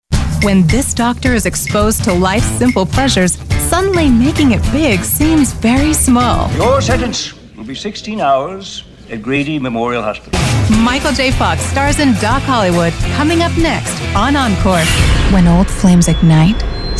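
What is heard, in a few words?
english US female commercial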